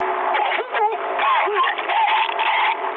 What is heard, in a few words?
ru damaged pilot; War Thunder